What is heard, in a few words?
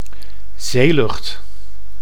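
"zeelucht", Dutch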